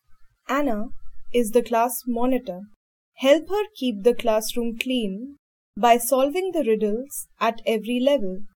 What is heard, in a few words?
dialog; Elevate LMS; EVS; SolveThis